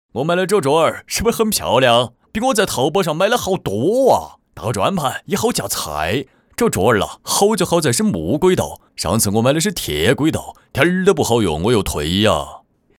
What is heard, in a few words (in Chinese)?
四川话买桌子